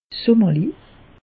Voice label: "summerlich", alsace; meteo

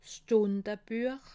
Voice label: "stundebuech", alsace

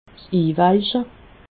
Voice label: "sinweiche", alsace

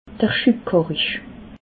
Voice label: "schubkarre", alsace

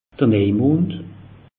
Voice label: "neijmond", alsace; meteo

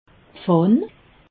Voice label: "pfann", alsace

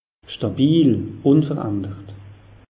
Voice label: "stabil", alsace; meteo

